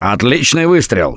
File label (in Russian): Nice Shot, left4dead2 russian, Survivor Voice; Gambler